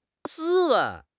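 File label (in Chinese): "斯文", Chinese; HSK6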